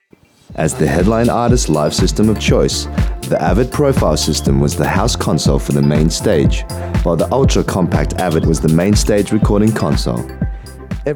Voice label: Corporate Voiceover

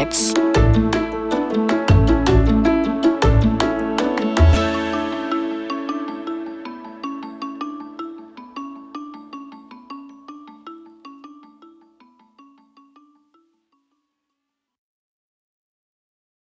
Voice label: Podcast

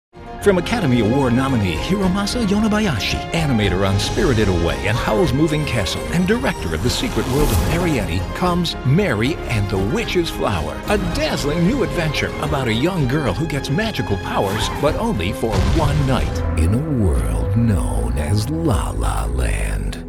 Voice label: Movie Trailer and TV Promos

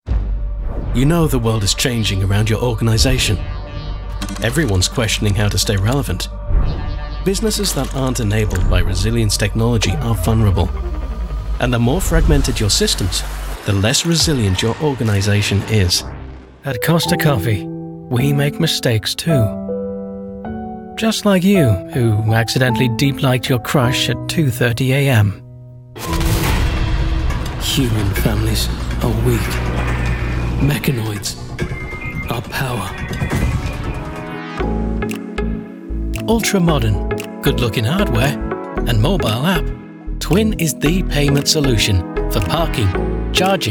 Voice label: Corporate Narration, male voice over

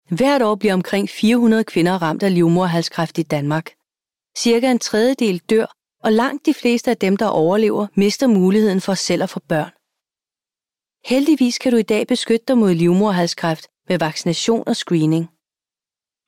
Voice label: documentary

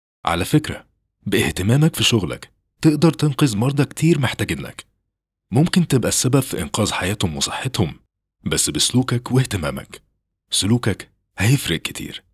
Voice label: Radio PSA

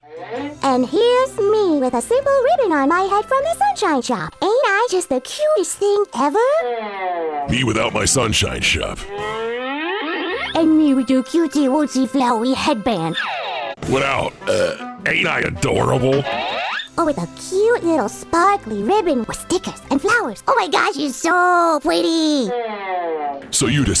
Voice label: Baby Talk; Sunshine Shoppe

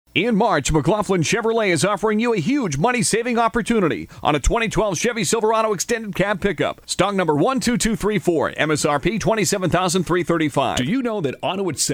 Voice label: Commercial Automotive

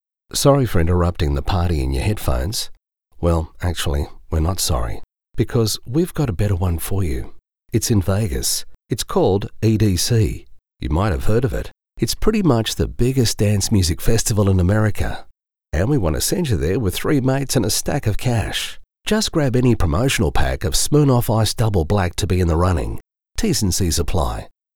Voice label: TELEVISION

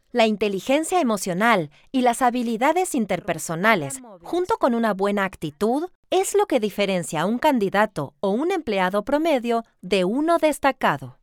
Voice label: E learning; devops

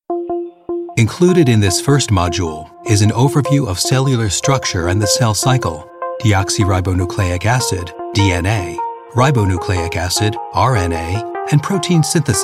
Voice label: e-Learning Basic Oncology

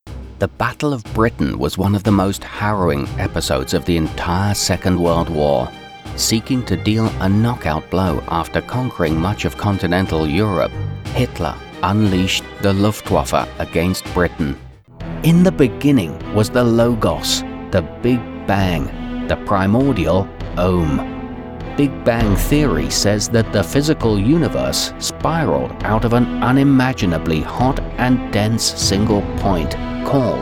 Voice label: Documentaries Voice-over Stereo

